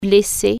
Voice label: hurt, french

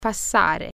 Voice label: pass a course, italian

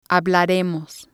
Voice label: "hablaremos", spanish

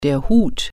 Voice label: "hat", German

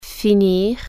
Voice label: finish, french

